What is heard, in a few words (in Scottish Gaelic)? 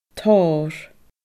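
"torr", Scottish Gaelic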